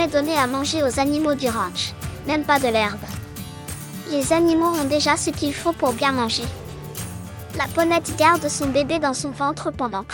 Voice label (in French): poney enfant, explication; ranch du moulin st martin